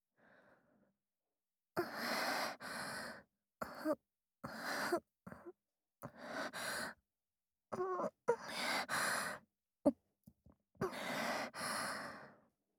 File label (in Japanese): ヒロインボイス